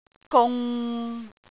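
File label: "gung6", Cantonese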